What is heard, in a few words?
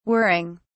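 "whirring", British English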